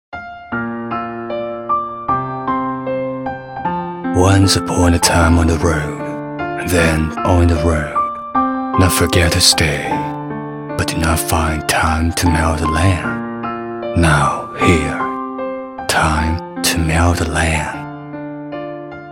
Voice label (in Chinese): 男英 广告 品牌形象 广告 磁性; 英语; 从前广告